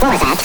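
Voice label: "what", voice; NES DPCM; MIDINES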